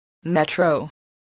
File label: "metro", voice, spelling